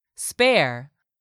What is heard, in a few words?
"SPARE", english; 3000 words